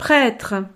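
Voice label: "pretre", French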